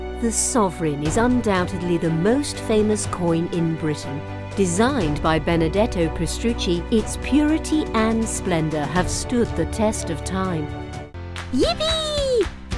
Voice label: Commercial